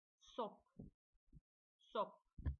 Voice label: "reindeer", CZECH; sob